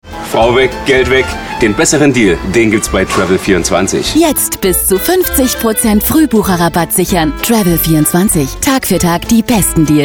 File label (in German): Werbestimme; Travel24